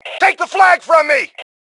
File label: Flag Take, Male; T2 Male 05